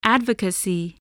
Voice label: "advocacy", English voice

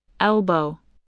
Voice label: "elbow", English

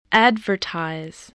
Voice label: "advertise", English voice

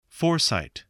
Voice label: "foresight", English voice, spelling

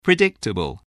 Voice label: "predictable", voice, spelling

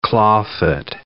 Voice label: "claw-foot", English

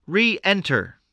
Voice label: "reenter", spelling voice

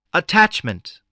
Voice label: "attachment", english

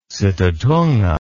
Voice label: "sitatunga", words

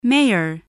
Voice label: "mayor", spelling voice

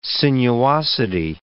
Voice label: "sinuosity", English

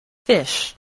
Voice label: "fish", spelling

